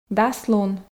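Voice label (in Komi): Komi, дас лун, female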